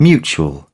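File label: "mutual", British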